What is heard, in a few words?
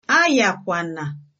get well soon, igbo